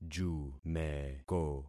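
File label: "jumeko", concatenated syllables; Affixing experiment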